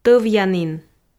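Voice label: "тӧвъянін", Komi, female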